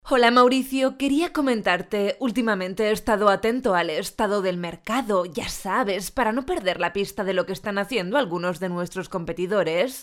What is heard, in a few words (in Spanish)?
locutora spain